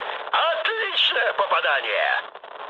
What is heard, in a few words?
bomb success, mood high, speech ru; War Thunder